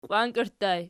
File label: "qiwankerttu", Archi